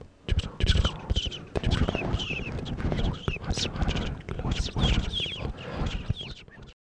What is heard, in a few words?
WHISPERS